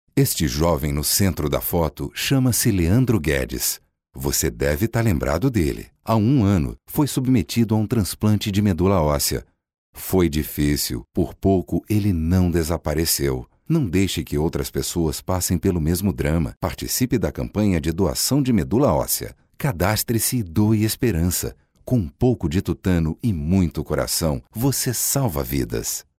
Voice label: emoc. triste

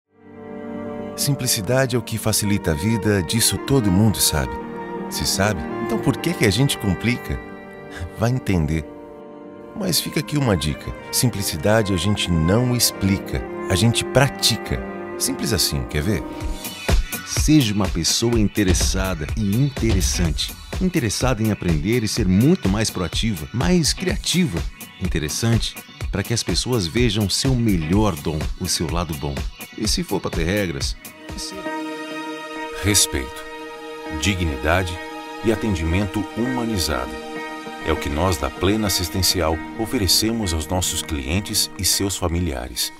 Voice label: LOCUÇÃO NATURAL EMOTIVA

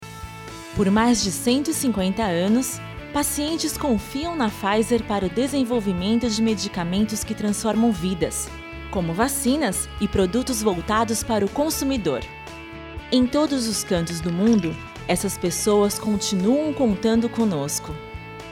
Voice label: Voz Classica; Pfizer

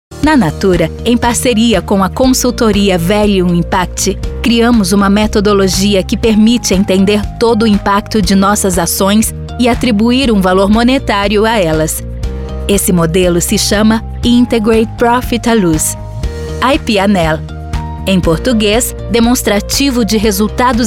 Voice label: Confiante Corporativo; Natura

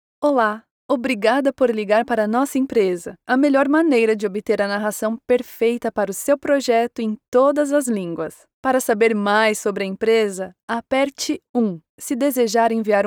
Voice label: Off Teste Com Noise Reduction

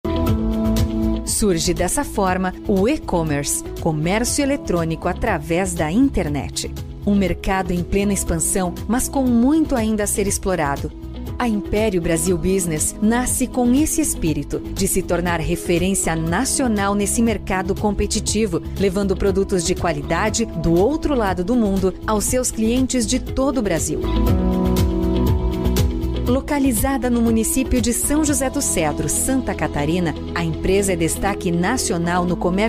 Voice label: Institucional; Império

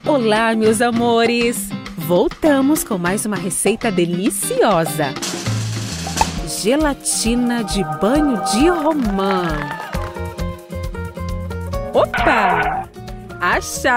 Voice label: Voz descontraída e caricata

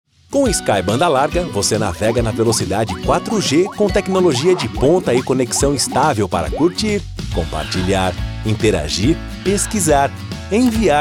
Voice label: NATURAL

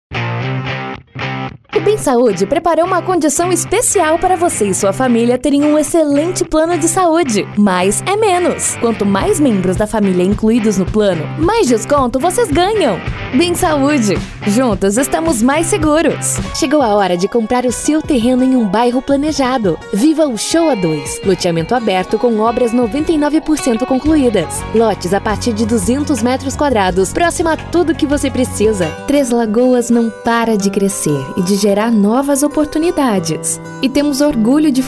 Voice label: LOCUTORA JOVEM

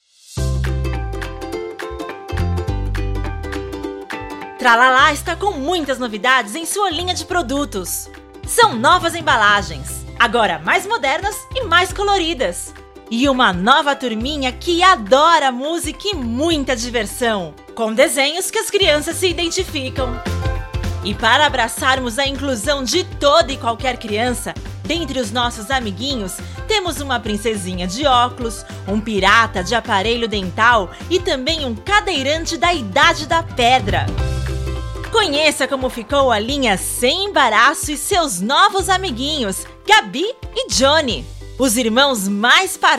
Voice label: Voz Varejo; Phisalia

